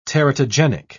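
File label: "teratogenic", British English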